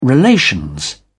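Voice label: "relations", British English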